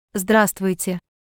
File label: Russian Hello formal; Greetings